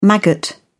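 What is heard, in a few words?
"maggot", British English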